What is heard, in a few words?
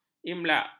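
"imlak", Malay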